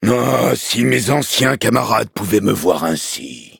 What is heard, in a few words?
levelup, fr; cookierun